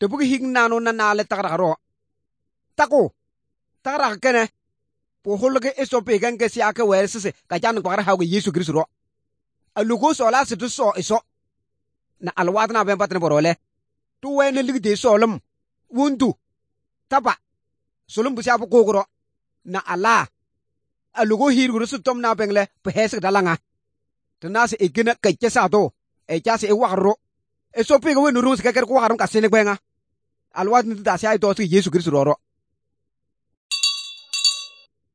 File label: good news, kabiye bible